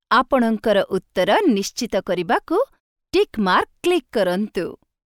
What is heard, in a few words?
Click Check VO, Odiya